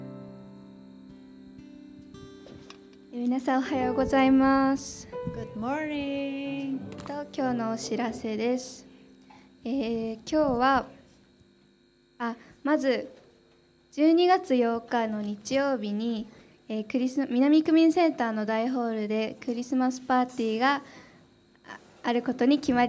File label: announcement; sunday message 2019